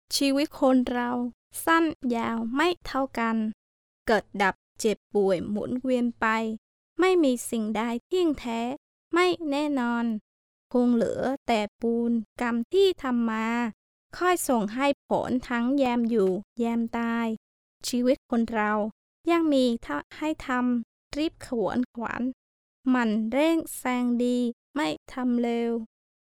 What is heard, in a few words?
Voice Talent Narration